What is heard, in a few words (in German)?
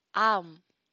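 "arm", German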